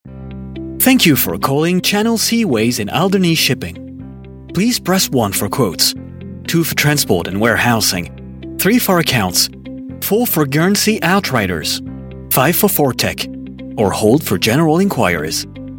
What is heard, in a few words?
french male ivr en